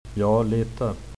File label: a little, swedish; Ja, lite